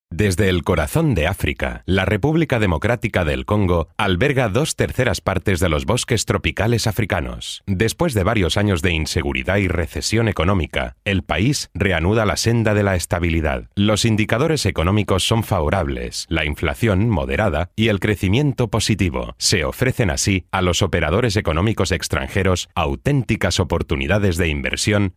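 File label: Spanish, male, corporate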